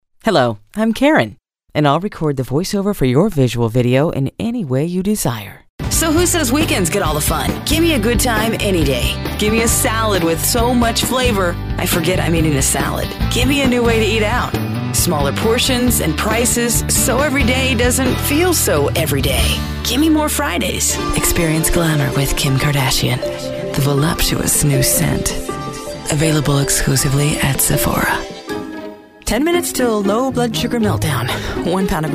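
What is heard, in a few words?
English, voicesample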